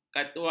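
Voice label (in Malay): "katwal", Malay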